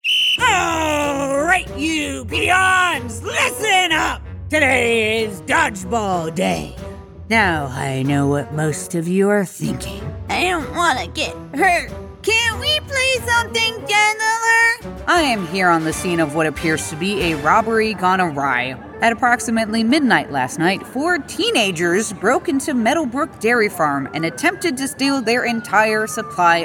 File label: Animation